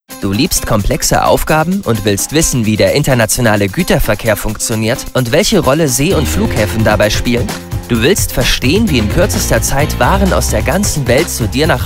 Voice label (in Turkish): Almanca Erkek; seslendirme